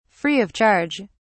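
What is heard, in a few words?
"free of charge", British English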